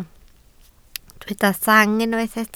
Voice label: "sang", Welsh